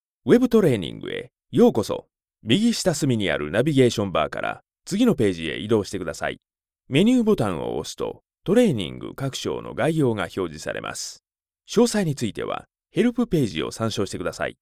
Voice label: Japanese male; Foreign language voice over